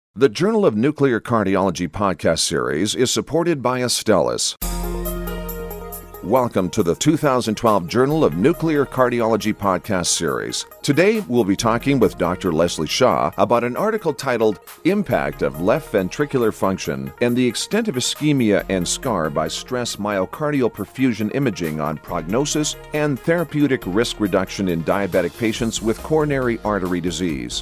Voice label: english canadian podcasts

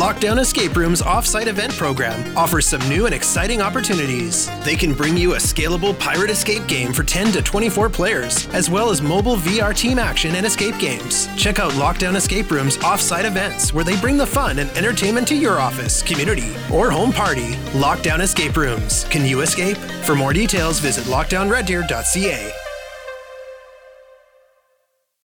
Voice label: English Canadian corporate videos

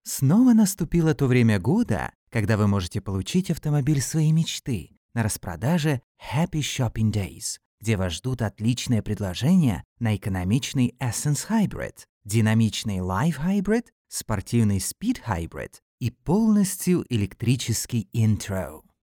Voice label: russian corporate videos; Vídeos corporativos

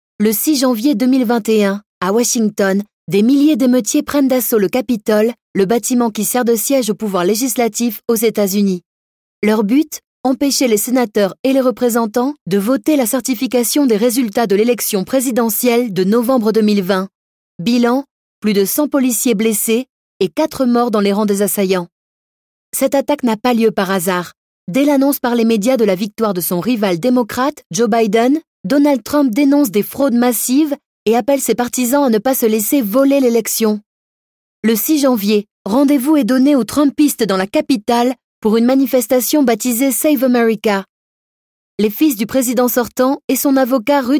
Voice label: french political ads; French voice actress; I am a professional French voice actress and singer

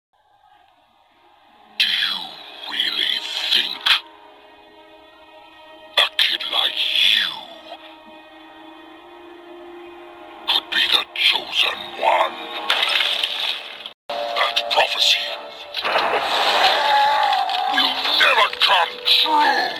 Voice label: english australian animation

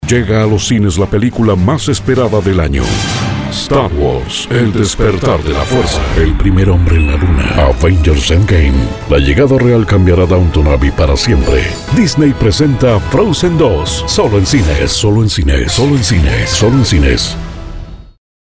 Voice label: spanish latin american movie trailers

